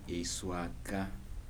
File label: "kind of cake made from cassava root", Kibembe